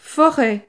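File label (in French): "foret", French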